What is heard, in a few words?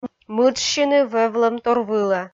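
"мыджсьыны вӧвлӧмтор вылӧ", Komi, female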